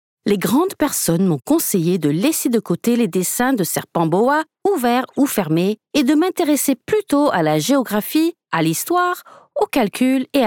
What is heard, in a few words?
female French narration